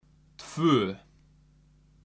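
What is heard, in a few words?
"tvö", Icelandic; tolur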